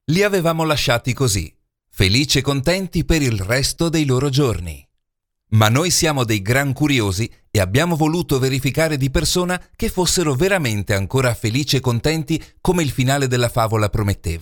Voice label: Spanish, Male; ES